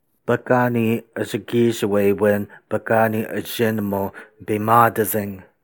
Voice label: ojibwe